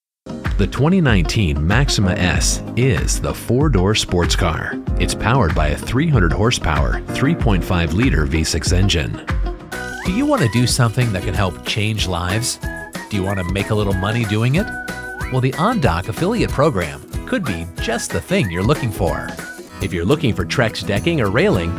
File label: INDUSTRIAL CORPORATE